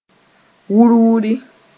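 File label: "wur-wuri", Mooré; noise of rumbling thunder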